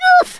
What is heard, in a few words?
oof, Brummie; Worms Armageddon